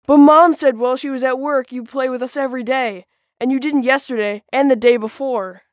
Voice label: Kid